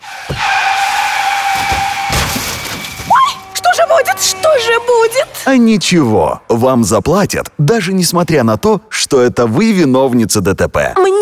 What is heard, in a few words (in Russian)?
радио-ролик; Рекламная кампания TACK 2010